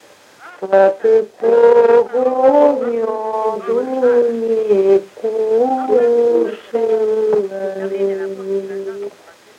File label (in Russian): Речевой портрет, мезень